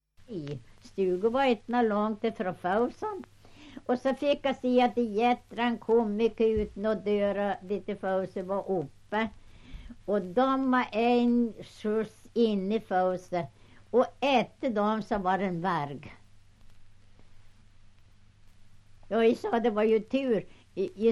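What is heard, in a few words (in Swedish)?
Hackås Jämtland, svenska sagor dialekter; Folkminnen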